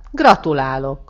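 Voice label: congratulation, Scottish Gaelic; gcompris-data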